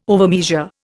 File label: "overmeasure", Indian